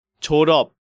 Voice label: "Joreop", Korean